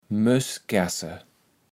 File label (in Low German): "Mösskärsse", Platt